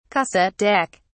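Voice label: "cassette deck", British English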